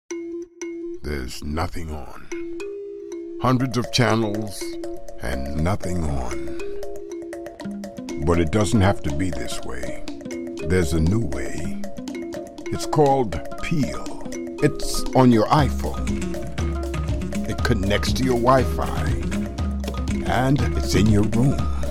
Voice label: Voice Over; Peel

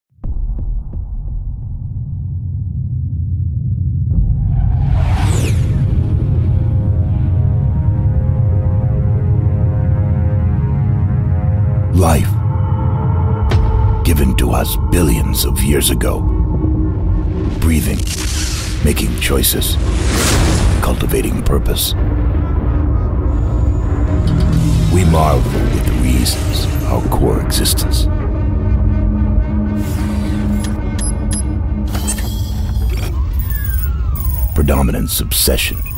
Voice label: Video Game Intro; Life

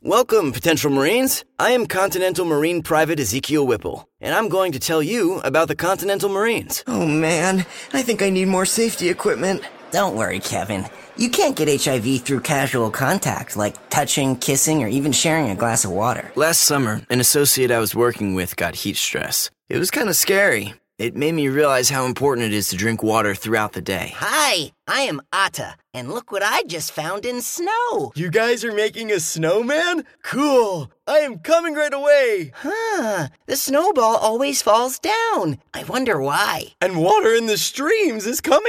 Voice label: eLearning Role Playing; VO Reel

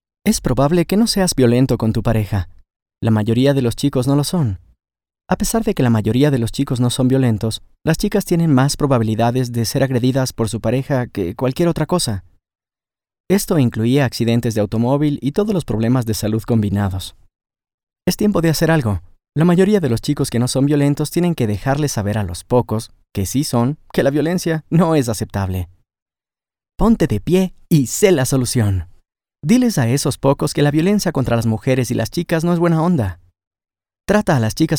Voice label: Natural speak

